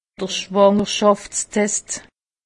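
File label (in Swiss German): "schwangerschaftstet", Alsatian; medecine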